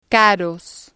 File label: "caros", spanish